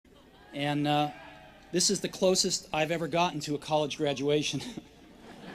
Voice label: Commencement Address; Steve Jobs; 2005; Stanford